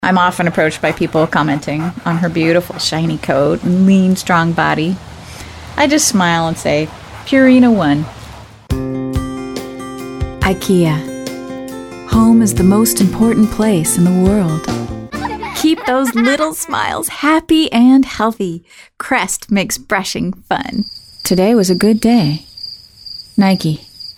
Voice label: women commercial